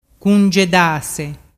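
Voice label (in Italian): "cungedase", Vernazzese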